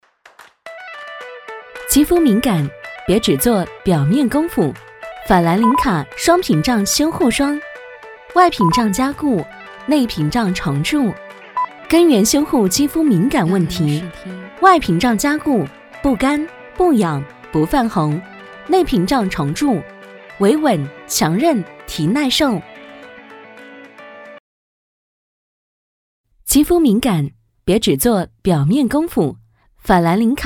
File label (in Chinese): 女 广告; 法兰林卡肌肤敏感; 别只做表面功夫